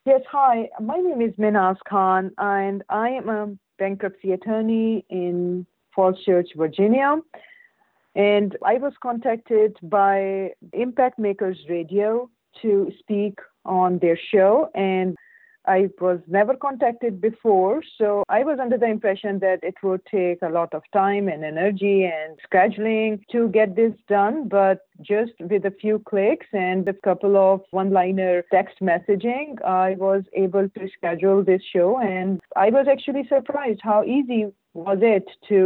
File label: Testimonial; Impact Makers Radio